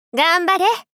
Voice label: "頑張れっ！" お姉さん×中音域

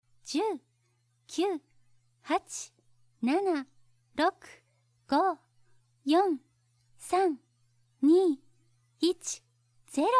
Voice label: 10から0へカウント; セルシアさん

